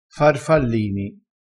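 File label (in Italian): "farfallini", vocabolario dialettale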